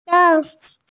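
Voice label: "zang1", Mandarin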